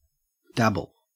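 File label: "dabble", Australian English; pronunciations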